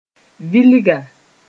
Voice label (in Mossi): "vilga", moore